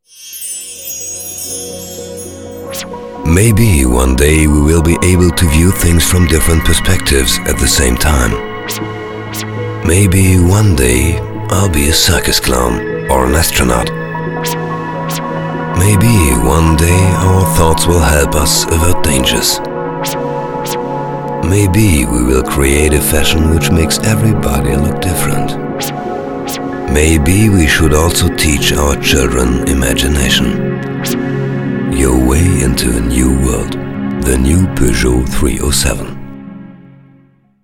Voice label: englisch; peugeot; Muttersprache Deutsch

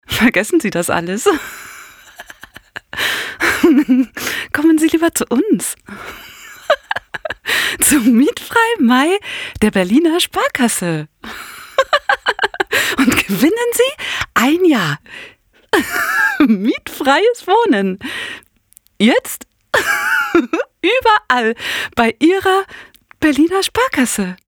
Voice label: werbung lachen; sparkasse

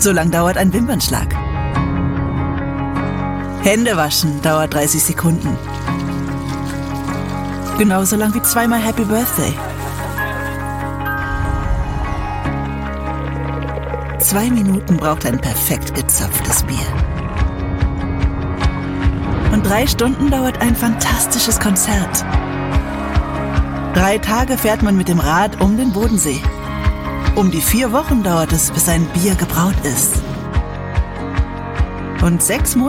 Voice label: Imagefilm wir sind bereit; Berlin, Dresden, Leipzig, Meißen; Muttersprache Deutsch, Französisch; deutscher brauer bund

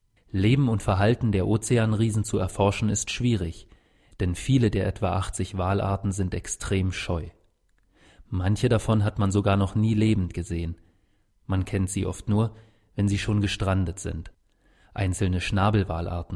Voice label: doku

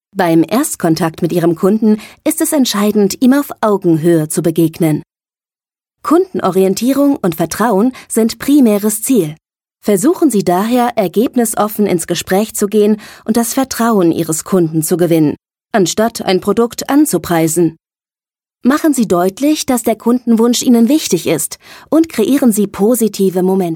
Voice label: e-learning freundlich personlich

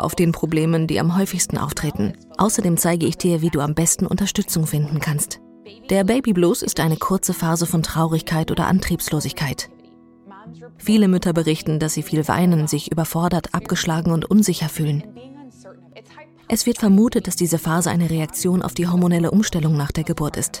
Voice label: voiceover perinatale psychische erkrankungen; lansinoh

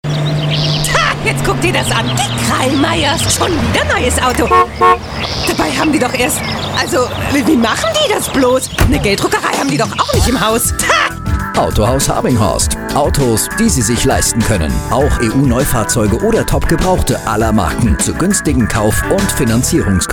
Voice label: spot

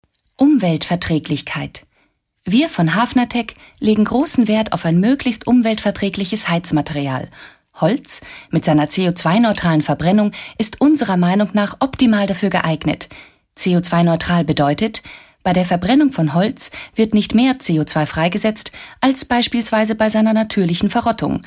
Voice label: Industriefilm deutsch

